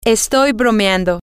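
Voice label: just kidding, Spanish, female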